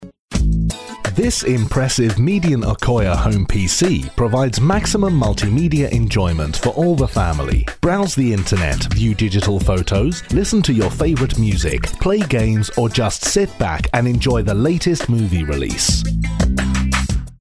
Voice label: corporate